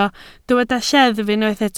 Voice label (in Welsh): "lleddf" Welsh